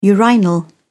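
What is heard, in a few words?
"urinal", British English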